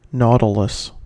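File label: "nautilus", English, American accent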